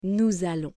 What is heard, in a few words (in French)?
"Nous allons", French